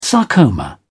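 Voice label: "sarcoma", British English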